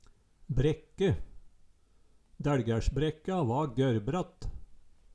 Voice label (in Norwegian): "brekke", Numedalsmål